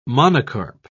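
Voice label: "monocarp", English, British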